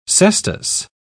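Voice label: "cestus", British English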